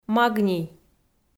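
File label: "магний", Komi, female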